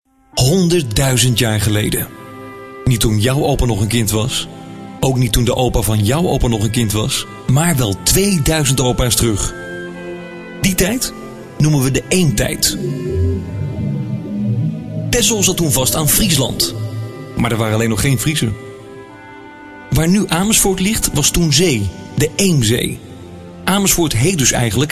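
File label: Dutch, male, narration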